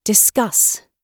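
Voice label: "discuss", British English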